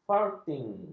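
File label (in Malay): "farthing", Malay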